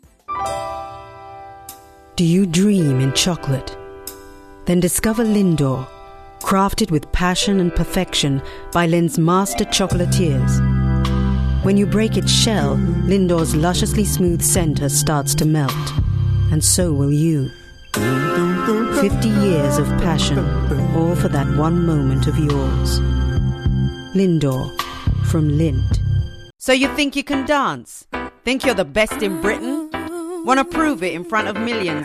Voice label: Commercial